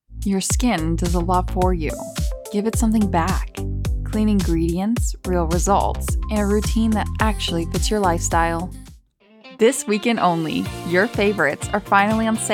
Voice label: Commercial